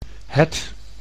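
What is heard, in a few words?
"het", Dutch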